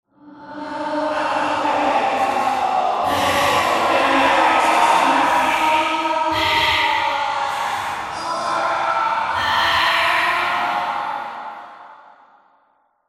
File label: Whispers Tense; horror and atmosphere fx; Silent Hill Downpour